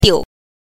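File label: "diu", Mandarin Chinese pinyin, neutral tone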